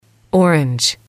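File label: "orange", English, female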